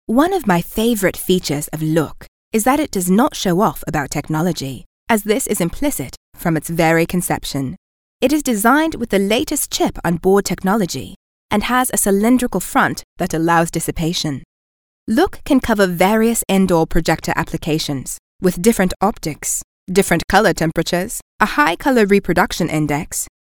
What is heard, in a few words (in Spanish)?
USA Femenino